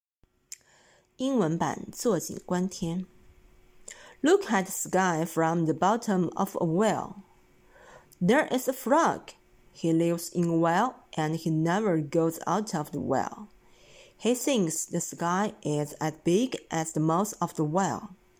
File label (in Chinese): 英文版; 井底之蛙